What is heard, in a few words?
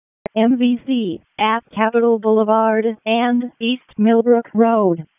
EMS ALERT